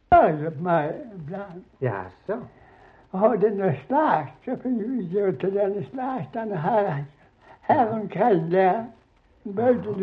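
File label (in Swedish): Slättåkra Halland, Svenska sagor dialekter; Folkminnen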